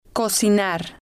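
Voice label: "cocinar", Spanish, female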